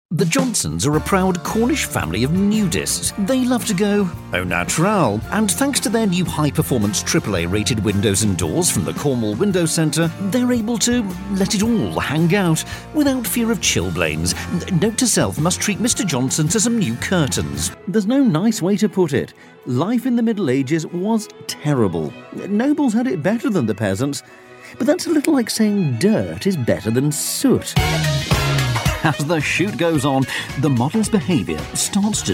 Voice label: wry ironic